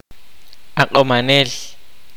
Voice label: kaqchikel; Shaman medicine man